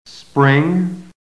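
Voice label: "spring", American English; PHONETIC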